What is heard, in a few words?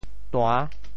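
"tua~6", Teochew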